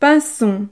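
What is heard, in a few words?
"pinson", French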